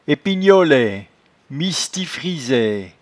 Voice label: "epignolaie", patois jura